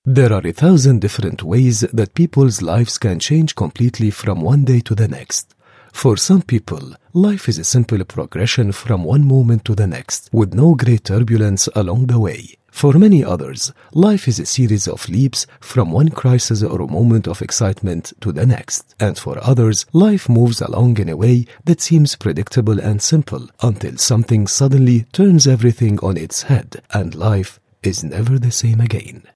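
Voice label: Arabic English; Arabic (Iraq), Middle Eastern, Male, 30s-50s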